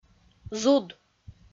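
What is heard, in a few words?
"зуд", Komi-Zyrian, female; audio dict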